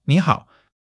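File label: "你好", Chinese; HSK1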